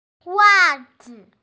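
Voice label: "wa zi", Mandarin Chinese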